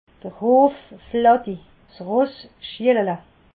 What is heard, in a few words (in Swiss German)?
"hueflatti", alsace